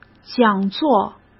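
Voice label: "讲座", Mandarin Chinese; hsk; chinese flashcards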